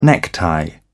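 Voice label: "necktie", British English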